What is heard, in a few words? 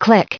"click", en-us recite; click (to); vocabulaire anglais; communication